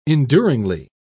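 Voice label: "enduringly", British English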